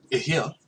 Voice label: how much, hawaiian